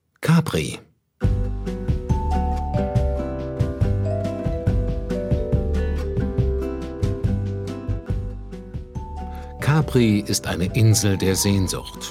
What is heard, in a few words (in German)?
reisehoerbuch